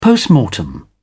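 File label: "post-mortem", British English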